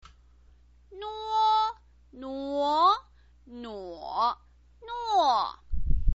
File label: "nuo", chinese pinyin